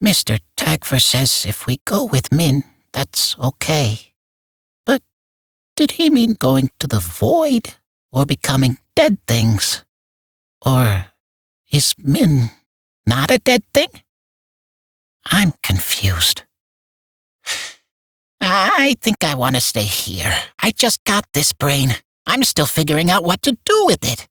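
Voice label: Barks Bird, English; Warframe; Entrati Cavia Vendors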